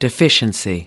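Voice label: "deficiency", American English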